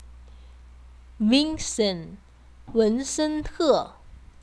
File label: Vincent in chinese